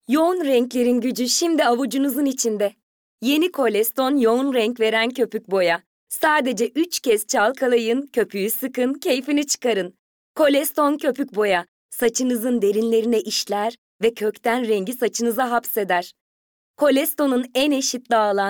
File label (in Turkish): kadin sesler, turkce seslendirme